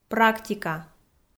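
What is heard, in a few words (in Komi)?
"практика" Komi, female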